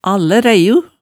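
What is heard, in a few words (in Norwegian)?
"allæræiu", Hemsedal dialect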